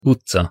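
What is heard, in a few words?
"utca", Hungarian pronunciation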